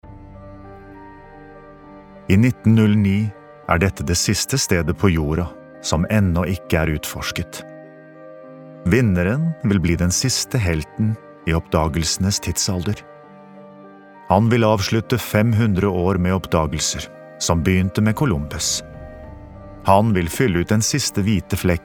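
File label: Norwegian documentary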